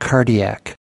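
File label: "cardiac", US English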